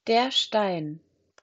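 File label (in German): "Stein", German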